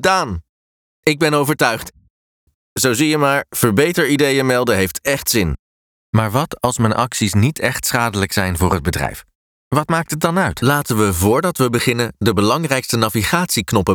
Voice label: dutch e-learning

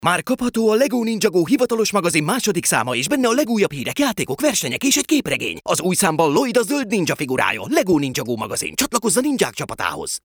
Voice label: hungarian promos

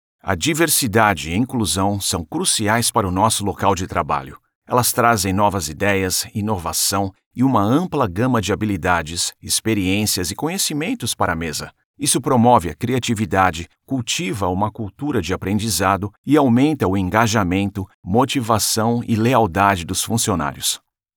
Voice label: portuguese brazilian corporate videos

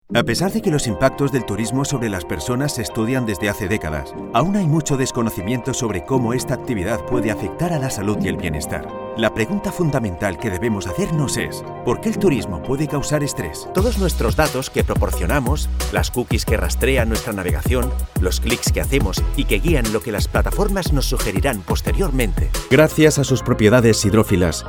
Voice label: spanish explainer videos, spanish voice actors

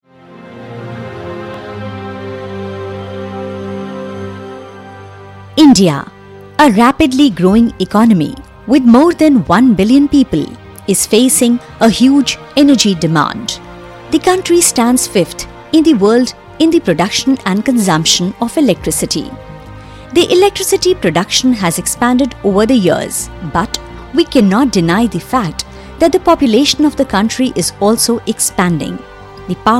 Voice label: English international narration; âncora de TV e artista de VO

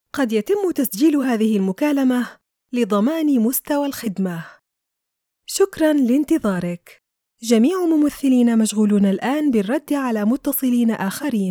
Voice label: arabic ivr

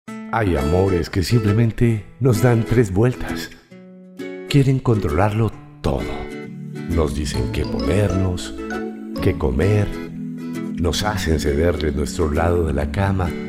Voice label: spanish latin american others

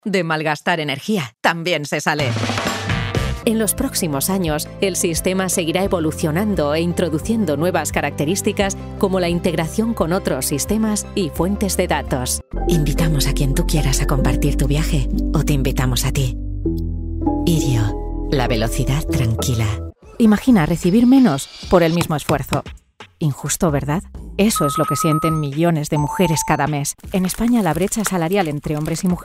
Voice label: spanish

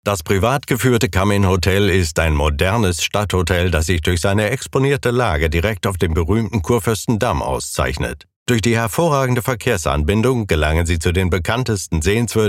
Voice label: german explainer videos

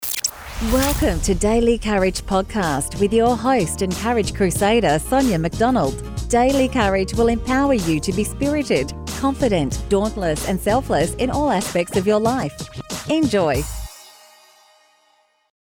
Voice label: english australian podcasts

